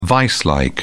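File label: "viselike", English, British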